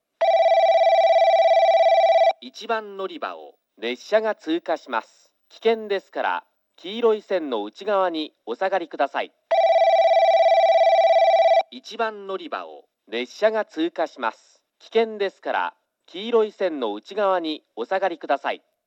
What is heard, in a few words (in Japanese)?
1番のりば通過放送 男声